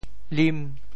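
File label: "lim5", Teochew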